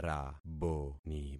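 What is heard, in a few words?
"raboni", concatenated syllables; Affixing experiment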